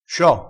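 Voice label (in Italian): "scio", dialettale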